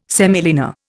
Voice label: "semolina", Indian